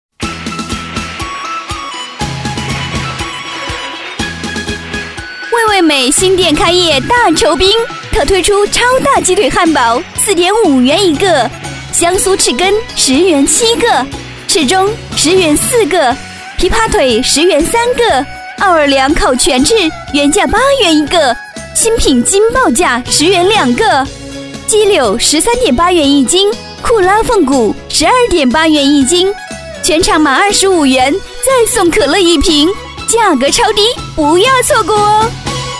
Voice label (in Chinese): 女, 开业广告, 喜庆热情; 味味美; 女001-甜美激情 激情力度